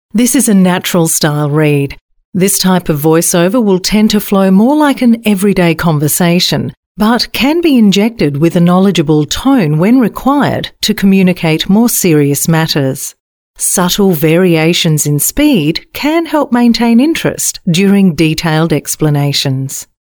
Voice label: on hold messages female